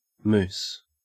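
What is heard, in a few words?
"moose", Australian English; pronunciations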